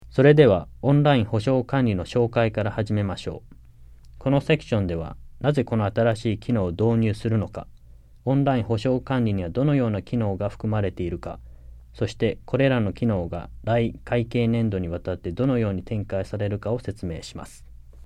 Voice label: JAPANESE; delltranslations